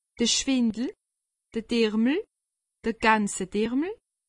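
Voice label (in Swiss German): "dirmel", Alsatian; medecine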